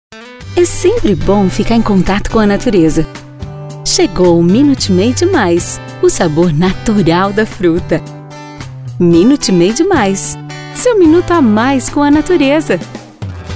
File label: portuguese brazilian tv shows